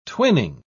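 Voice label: "twinning", English, British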